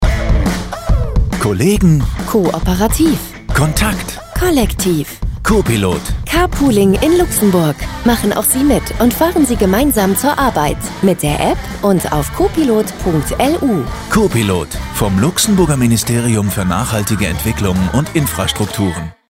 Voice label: spot radio de; copilote